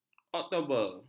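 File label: "oktober", Malay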